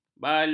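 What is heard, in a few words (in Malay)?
"balung", Malay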